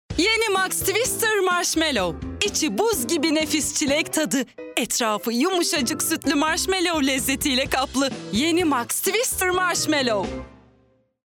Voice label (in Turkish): kampanya, seslendirme